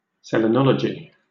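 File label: "selenology", British English; pronunciations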